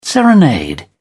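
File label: "serenade", British English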